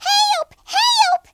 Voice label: "take cover", Southern Belle; speech

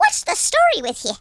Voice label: oh dear, Irish; speech

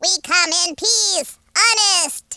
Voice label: hello, speech, Alien; Worms3D

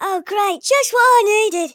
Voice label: bummer, speech, Hippy

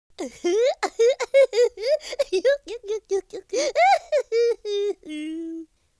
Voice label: happy, Cowgirl; speech

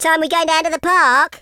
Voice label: "orders", Teenage Angst speech

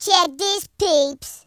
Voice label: drop, speech, Gangsta

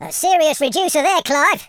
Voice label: amazing, Footy Fan

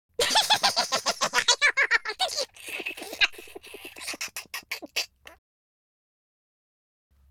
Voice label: happy, Spanish; speech

